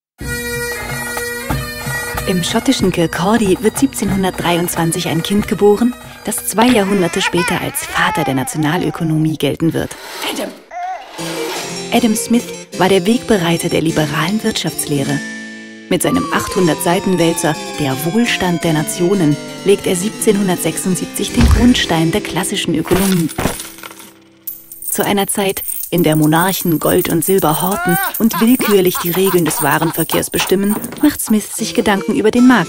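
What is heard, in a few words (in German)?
voice over, deutsch frauen; sprecher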